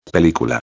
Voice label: "pelicula", espanhol

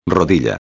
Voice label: "rodilla", espanhol

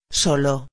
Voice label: "solo", espanhol

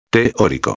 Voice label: "teorico", espanhol

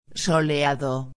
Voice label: "soleado", espanhol

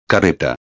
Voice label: "carreta", espanhol

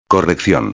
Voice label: "correccion", espanhol

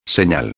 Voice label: "senal", espanhol

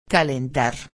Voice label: "calentar", espanhol; palavra do dia

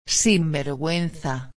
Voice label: "sinverguenza", espanhol

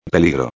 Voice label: "peligro", espanhol

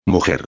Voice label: "mujer", espanhol; palavra do dia

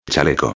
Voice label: "chaleco", espanhol; palavra do dia

